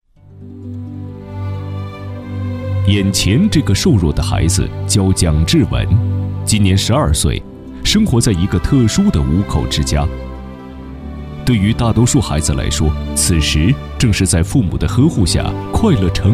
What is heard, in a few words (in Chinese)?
男 广电栏目 娓娓道来 乡土; CCTV-7